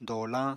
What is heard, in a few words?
"doolañ", Apatani